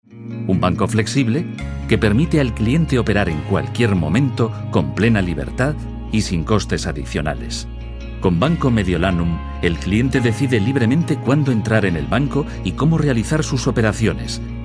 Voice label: es commercial